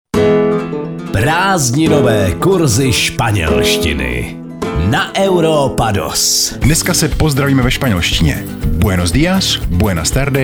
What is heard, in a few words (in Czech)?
spanelstina spanelstina